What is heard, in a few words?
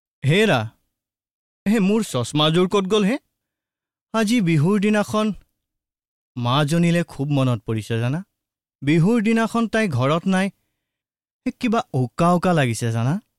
male assamese voice over narrative